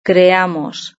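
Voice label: "creamos", Spanish; verb-conjugator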